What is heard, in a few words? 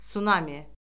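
"sunami", Azerbaijani; Orfoqrafiya Lüğəti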